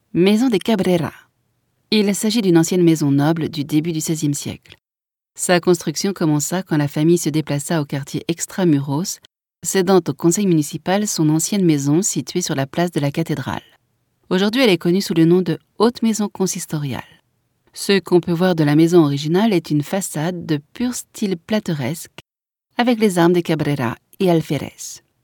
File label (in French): audioguia, frances; audioguia baeza